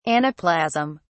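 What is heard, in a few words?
"anaplasm", British English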